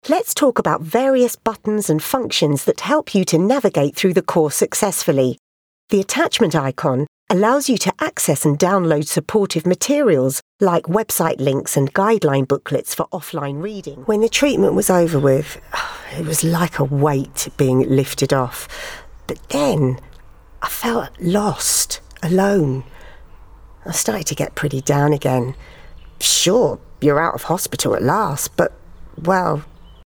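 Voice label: English UK female Corporate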